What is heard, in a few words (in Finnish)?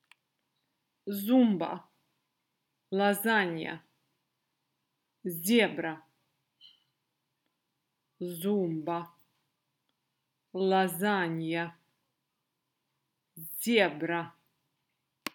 З, venäjän ässät lausuminen